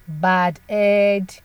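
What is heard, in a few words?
"bad head", Nigerian Pidgin, female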